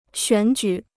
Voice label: "选举", Chinese

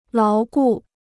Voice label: "牢固", Chinese

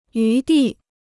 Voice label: "余地", Chinese

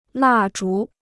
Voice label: "蜡烛", Chinese

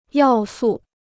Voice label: "要素", Chinese

